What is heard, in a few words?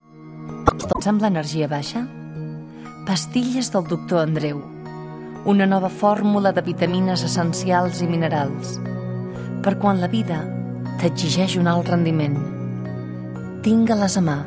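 Catalan male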